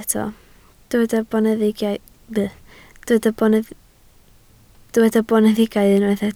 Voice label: "boneddigaidd", Welsh